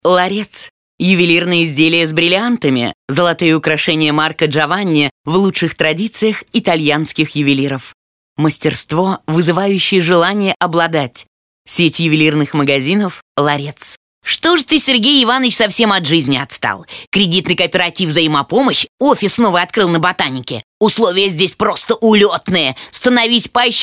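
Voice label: Russian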